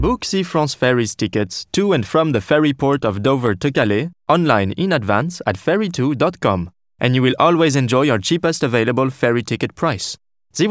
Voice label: English